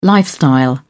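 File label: "lifestyle", British English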